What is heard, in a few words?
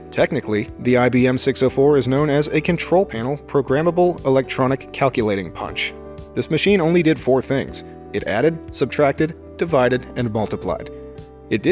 processed voice with music bed